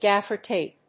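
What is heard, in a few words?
"Gaffer tape", English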